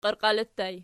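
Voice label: "qiarqiali" ergative plural, Archi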